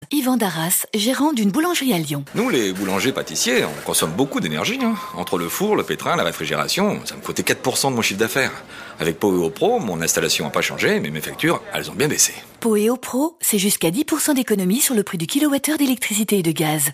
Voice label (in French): témoignage simple; POWEO